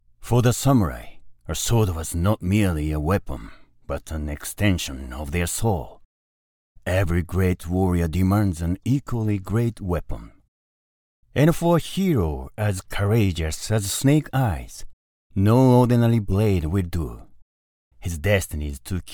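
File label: Japanese, male, English; Samurai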